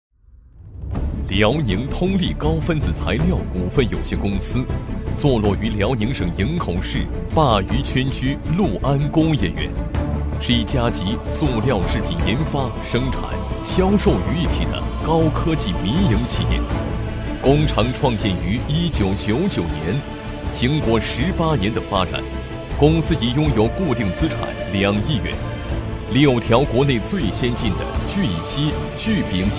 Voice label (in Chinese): 男 专题 股份; 辽宁通利